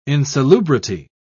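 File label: "insalubrity", British English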